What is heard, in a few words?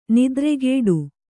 "ನೆತ್ತರುಗೊಡೆ", Kannada